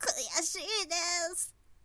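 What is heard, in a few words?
"kuyasiidesu", woman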